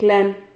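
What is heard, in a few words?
"clan", Spanish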